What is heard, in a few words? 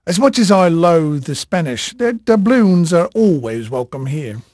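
English male, voice; Pirates Of The Caribbean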